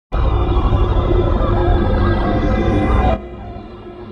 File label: panicking; pomni